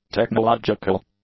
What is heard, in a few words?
"technological", English; adjectives